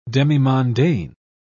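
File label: "demimondaine", British English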